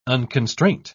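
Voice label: "unconstraint", British English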